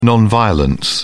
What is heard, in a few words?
"non violence", en uk